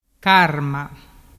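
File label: "carma", Vernazzese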